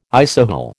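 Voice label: "isohel", American English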